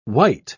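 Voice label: "wight", British English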